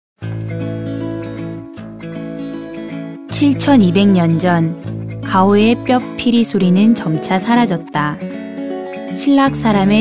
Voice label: korean girl